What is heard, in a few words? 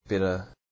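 "better", English, New Zealand Auckland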